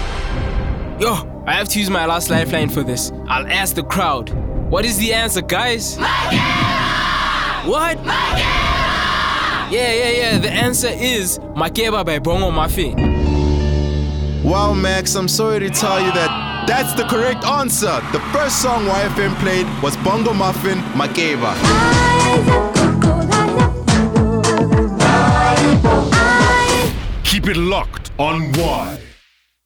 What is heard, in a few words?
Radio game show Promo